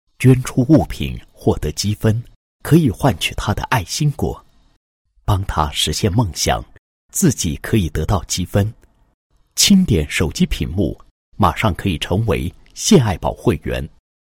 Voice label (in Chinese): 男 公益广告, 公益APP平台; 献爱宝